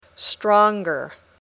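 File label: "stronger", English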